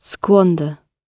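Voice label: "squander", British English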